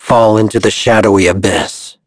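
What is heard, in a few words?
Vox Skill, voices heroes English; Shakmeh; kings raid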